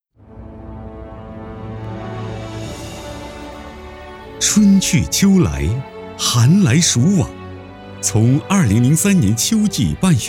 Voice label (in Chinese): 男 专题 学校宣传类专题